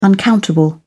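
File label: "uncountable", British English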